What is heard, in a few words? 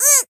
damaged, jp; makiwara